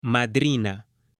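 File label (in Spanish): "madrina", Spanish, female; AppLenguaje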